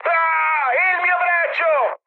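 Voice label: Italian damaged pilot mood high, speech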